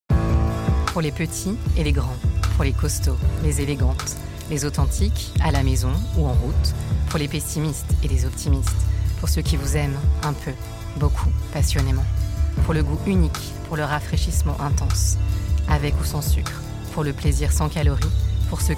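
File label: French, female, commercial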